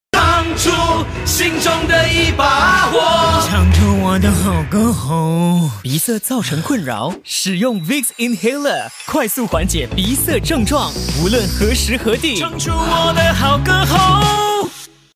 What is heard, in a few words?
Mandarin - Trustworthy, Singing, Funny Character; Vicks